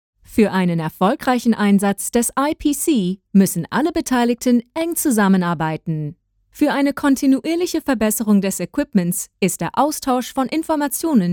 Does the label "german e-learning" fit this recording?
Yes